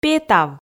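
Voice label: "петав", Komi, female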